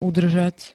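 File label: "udržať", Slovak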